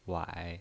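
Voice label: "wai3", Mandarin pinyin voice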